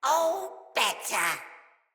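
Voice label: Death Corrupted Sprouts, en; Dialog; Battle Barks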